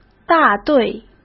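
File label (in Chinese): "大队", Mandarin Chinese; hsk; chinese flashcards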